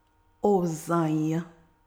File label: overjoyed